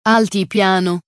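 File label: "altipiano", Italian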